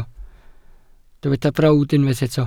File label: "brawd", Welsh